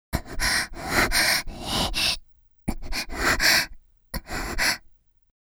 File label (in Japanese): 「はぁ、はぁ、いい、うっ！はぁ、はぁ。」（ささやき）; セクシーパーツ（エクササイズ間）